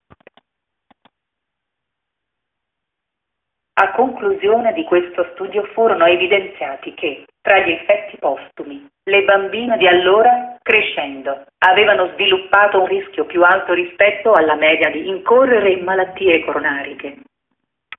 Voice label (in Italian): frase femminile